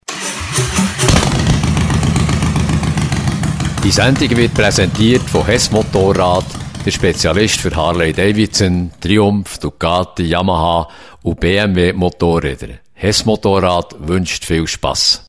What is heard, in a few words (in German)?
Werbung; Hess Moto